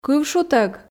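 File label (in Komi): кыв шутӧг, Komi, female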